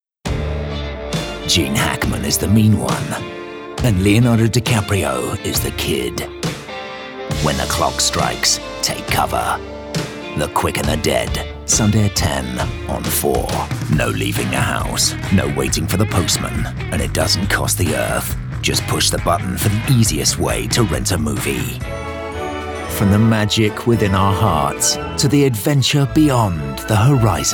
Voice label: Promo, Cool, Smooth, Confident, Upbeat, RP ('Received Pronunciation')